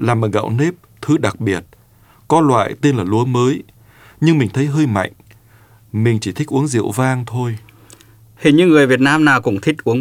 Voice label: Dialog